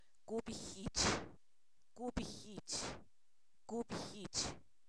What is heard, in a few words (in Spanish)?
sol, Zapoteco, san miguel albarradas; fenomenos naturales